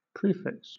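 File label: "prefix", British English; pronunciations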